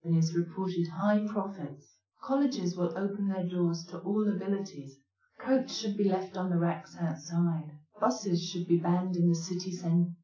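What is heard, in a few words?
female speech; SiSEC10; underdetermined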